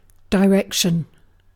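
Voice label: "direction", British English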